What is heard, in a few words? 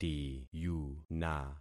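"tiyuna", concatenated syllables; Affixing experiment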